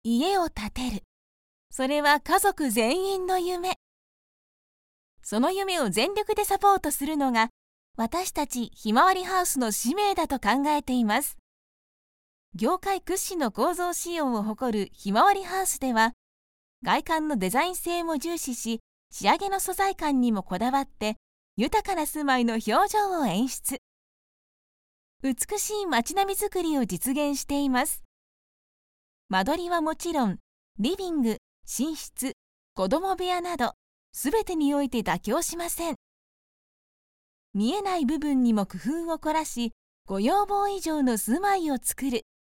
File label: female; 不動産のCM